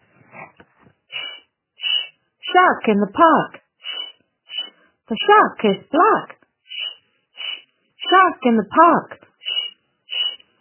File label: chant